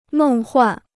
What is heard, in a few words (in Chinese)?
"梦幻", Chinese